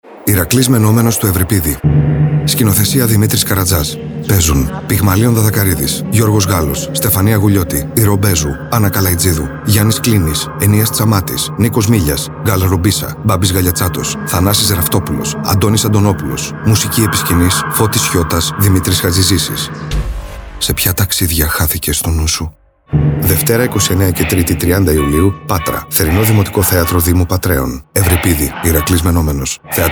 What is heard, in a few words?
Radio Spot; Ηρακλής Μαινόμενος; Πάτρα